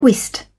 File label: "whist", uk